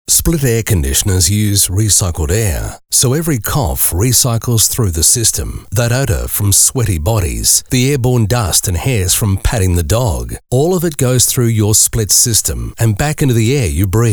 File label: advert; 6IX; Forever Classic